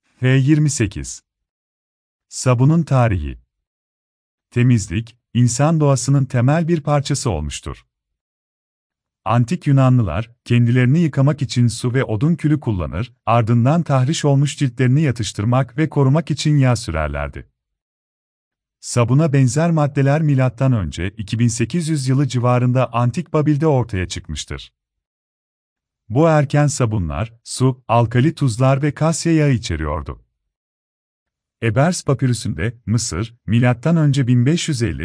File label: turkish; i istoria tou sapouniou